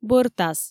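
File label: "бӧртас", Komi, female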